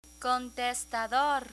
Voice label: "contestador", Spanish; learn spanish

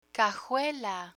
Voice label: "cajuela", Spanish; picture dictionary; learn spanish

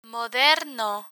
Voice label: "moderno", Spanish; medical medicine vocabulary; learn spanish

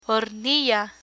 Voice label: "hornilla", Spanish

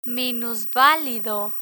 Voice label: "minusvalido" Spanish; hotel features; learn spanish

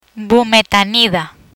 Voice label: "Bumetanida", Spanish; hypertension therapy

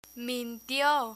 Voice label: "mintio" Spanish; learn spanish

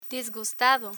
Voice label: "disgustado", Spanish

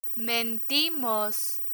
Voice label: "mentimos", Spanish

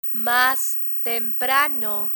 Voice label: "mas temprano", Spanish

